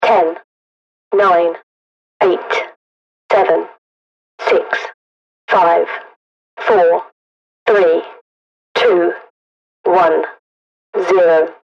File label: Megaphone Female Countdown